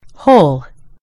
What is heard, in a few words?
"hole", en GB; gcompris